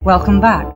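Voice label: welcome back, female; introductions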